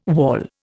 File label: "wawl", Indian